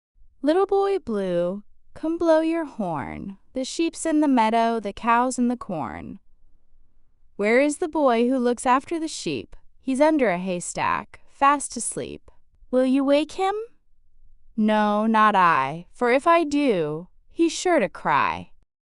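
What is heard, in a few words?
female US, classic rhymes; Little Boy Blue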